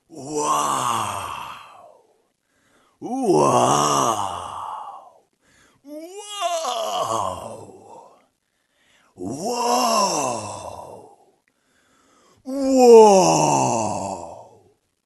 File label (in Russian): 5 variantov vau muzhchina